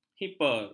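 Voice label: "hiper", Malay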